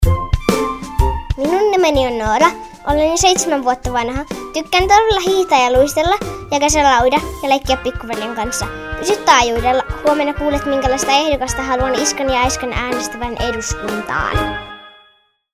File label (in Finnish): ennakkomainos; Radiomainokset 2015